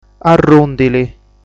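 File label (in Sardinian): "Arrùndili", Sardinian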